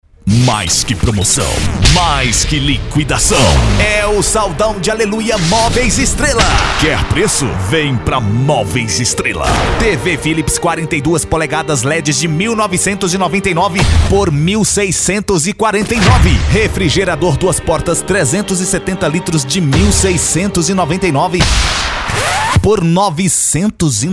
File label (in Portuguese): Spot comercial; estilo ricardo eletro moveis estrelao Eletro